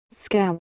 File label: "skaw", Proto Germanic